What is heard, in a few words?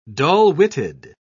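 "dull-witted", English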